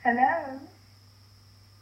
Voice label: imitating saying hello